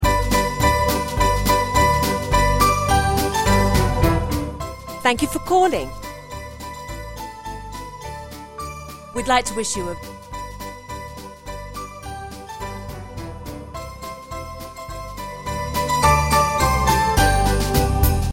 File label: Christmas Telephone Message Template